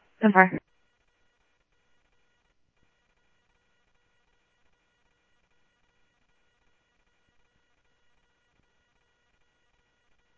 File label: Tempe Police, scanner audio